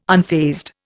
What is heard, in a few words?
"unfazed", Indian